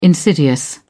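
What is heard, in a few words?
"insidious", British English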